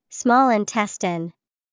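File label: small intestine, English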